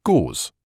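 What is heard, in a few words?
"gauze", British English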